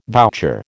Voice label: "voucher", American